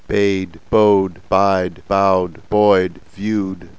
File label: Eng diphth RP